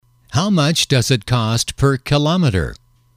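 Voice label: how much does it cost per kilometer, male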